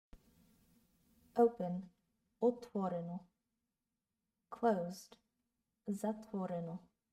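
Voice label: Open closed, Bulgarian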